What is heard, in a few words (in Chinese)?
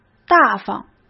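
"大方", Mandarin Chinese; hsk; chinese-flashcards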